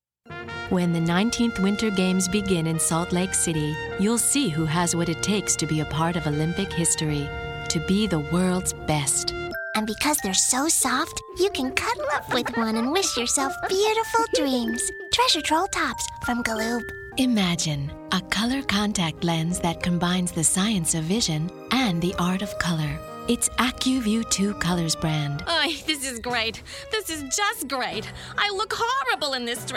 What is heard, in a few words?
Commercial, female voices